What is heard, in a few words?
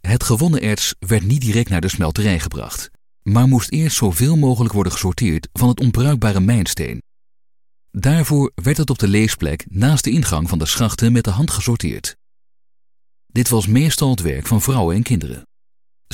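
male, Dutch, corporate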